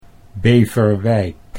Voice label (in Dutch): "Beverwijk", Dutch